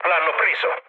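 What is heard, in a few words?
Italian aircraft destroyed mood low, speech; War Thunder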